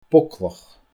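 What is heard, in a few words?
"boglach", Scottish Gaelic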